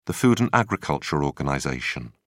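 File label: "food and agriculture organization", British English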